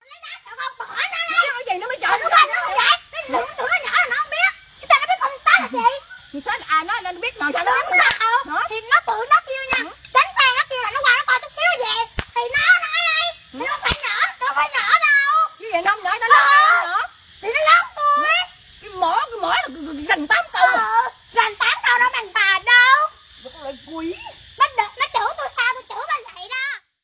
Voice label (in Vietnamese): Hieu ung am thanh Tieng cai nhau